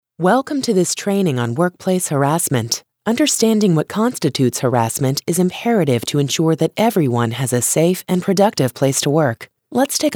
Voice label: eLearning